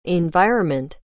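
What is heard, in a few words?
"environment", English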